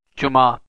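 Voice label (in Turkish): "cuma", Turkish, male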